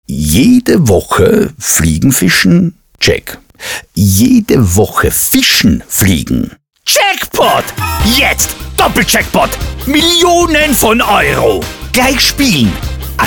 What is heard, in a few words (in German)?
Hörfunk; Doppel-Jackpot